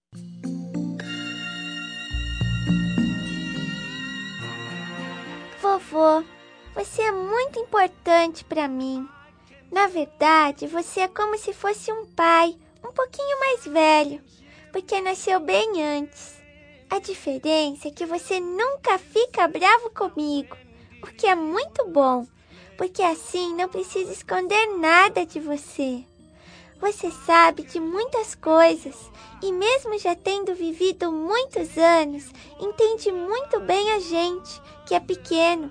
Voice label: voz infantil avo fem, Aniversário Voz Infantil Avô – Voz Feminina

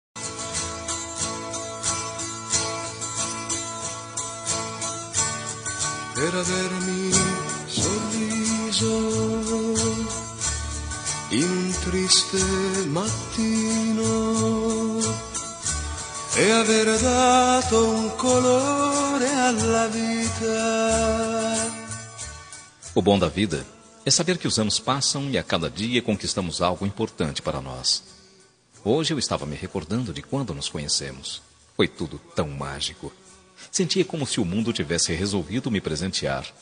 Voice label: aniver esposa, Telemensagem de Aniversário de Esposa – Voz Masculina

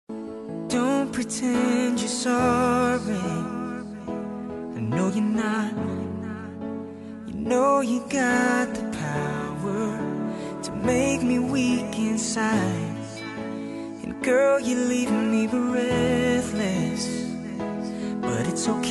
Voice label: romantica masculina, Telemensagem Romântica – Voz Masculina

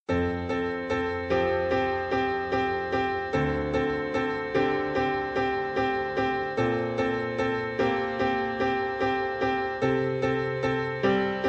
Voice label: Telemensagem de Otimismo, Voz Feminina

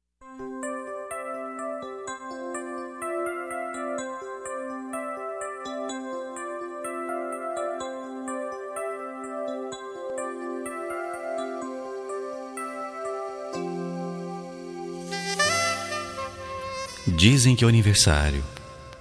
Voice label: Voz Masculina, Telemensagens; Aniversário de Pessoa Especial

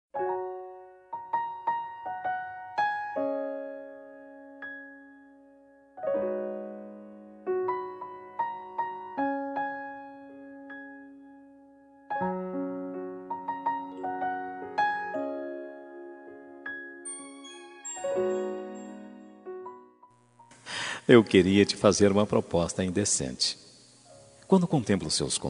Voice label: amante masc

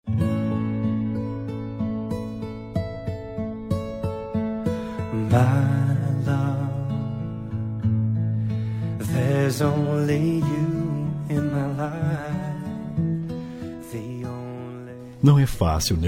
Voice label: dia dos namorados ex masculina; dia dos namorados para ex namorada voz masculina

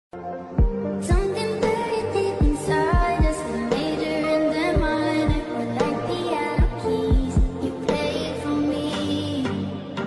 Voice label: dia dos namorados namorado, Dia dos Namorados – Para Namorado – Voz Feminina

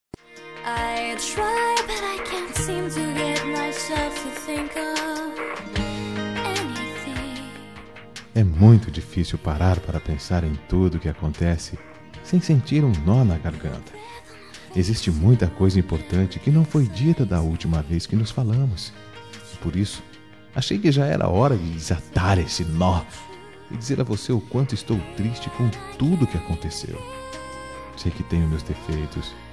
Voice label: desculpa neutra masculina, Telemensagem de Desculpas Geral – Voz Masculina

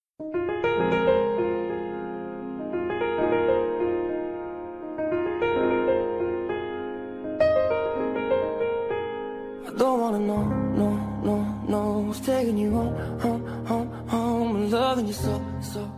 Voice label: otimismo amiga, Telemensagem de Otimismo para Amiga – Voz Feminina

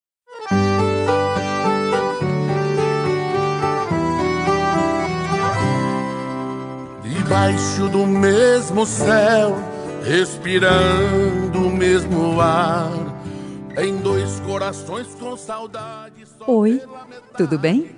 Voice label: paquera fem, Voz Feminina; Telemensagem de Conquista

